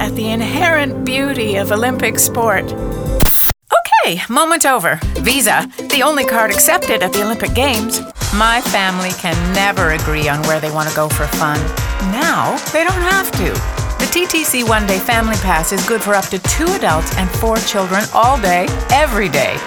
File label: commercial female